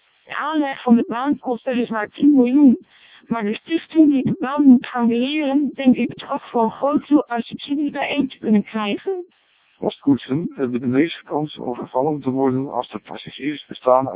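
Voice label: Dutch speech, CODEC2 vocoder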